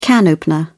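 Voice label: "can opener", British English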